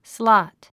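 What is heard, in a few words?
"slot", 英単語 発音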